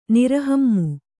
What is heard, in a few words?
"ನಿರಹಮ್ಮು", Kannada